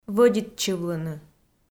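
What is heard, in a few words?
"вӧдитчывлыны", Komi, female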